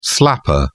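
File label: "slapper", British English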